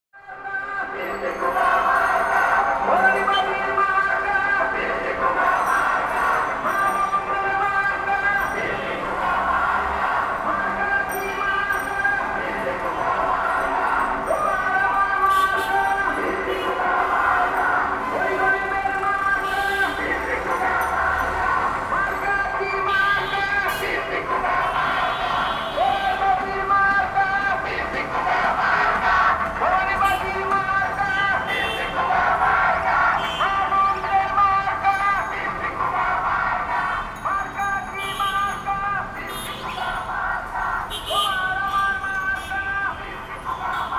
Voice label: Election propaganda, Bangladesh; Les Cartes Postales Sonores; NOISE ON EARTH Vol2

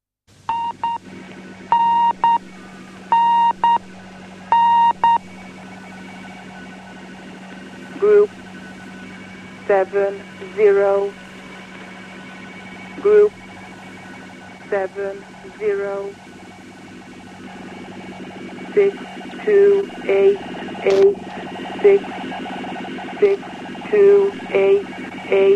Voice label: english

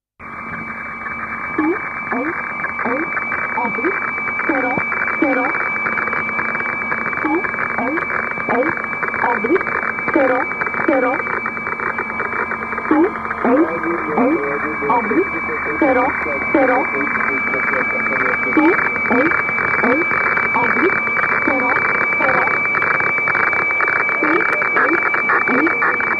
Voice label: strich english

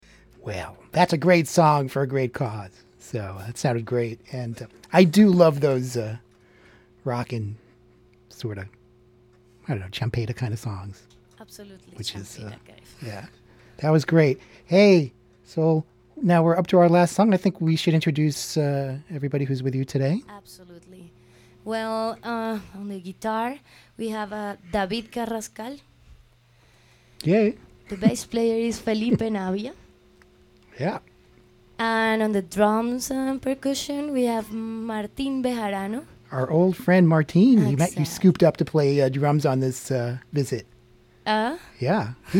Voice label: Interview; Live at WFMU on Transpacific Sound Paradise Dec 3rd 2011

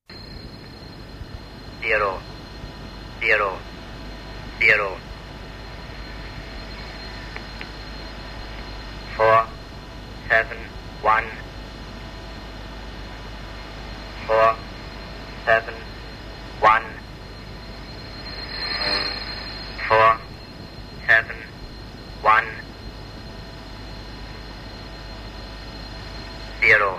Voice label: english man

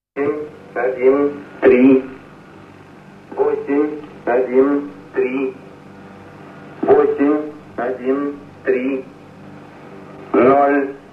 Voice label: russian man

